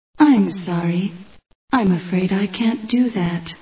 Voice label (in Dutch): sorry, dutch